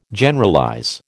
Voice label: "Generalize", American